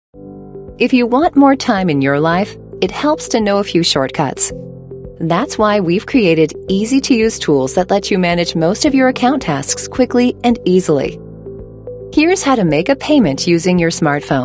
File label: e-learning